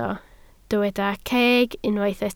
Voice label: "ceg", Welsh